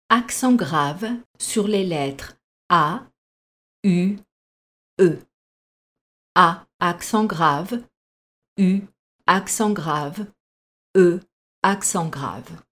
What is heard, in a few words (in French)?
grave; accent